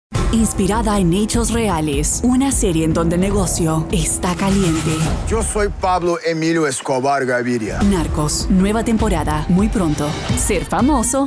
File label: Spanish Promo